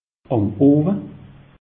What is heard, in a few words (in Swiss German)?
"amowe", alsace; meteo